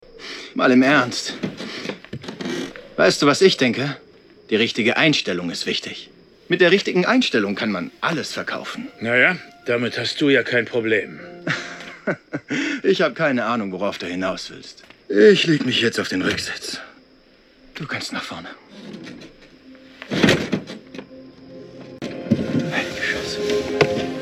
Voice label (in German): DEUTSCH MALE Synchron Serie; Love Death and Robots; LOFT VOICES